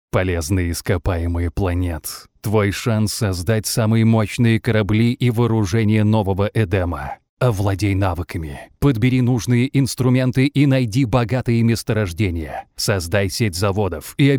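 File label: Russian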